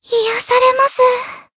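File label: Voice healed, Japanese; yuna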